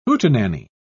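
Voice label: "hootenanny", British English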